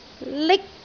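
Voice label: "lik1", Cantonese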